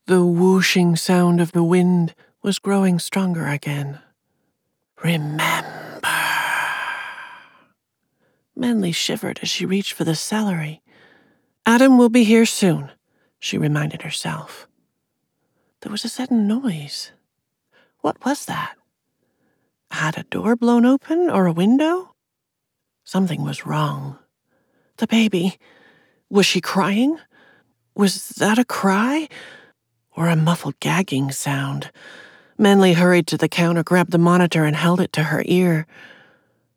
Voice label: Thriller 3rd Person